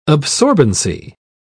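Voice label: "absorbency", British English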